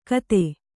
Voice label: "ಖತ", Kannada

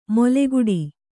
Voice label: "ಮೊಲೆಗುಡಿ", Kannada

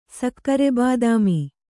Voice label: "ಸಕ್ಕರೆಬಾದಾಮಿ", kannada

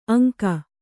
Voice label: "ಅಂಕ", Kannada

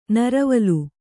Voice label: "ನೀರುವಲೆ", Kannada

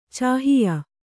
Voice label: "ಚಾಹಿಯ", Kannada

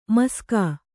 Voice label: "ಮಾಷಕ", Kannada

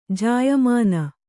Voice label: "ಜಾಯಮಾನ", Kannada

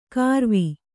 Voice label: "ಕಾರವಿ", kannada

